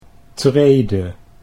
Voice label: "trede", Dutch